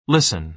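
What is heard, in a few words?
"listen", American English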